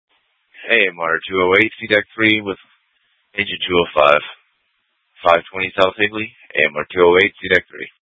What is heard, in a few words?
FD Dpts VHF 156.240 Patch